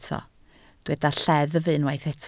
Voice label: "lleddf", Welsh